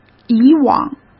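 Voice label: "以往", Mandarin Chinese; chinese-flashcards; hsk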